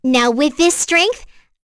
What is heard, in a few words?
vox, en; kingsraid; voices heroes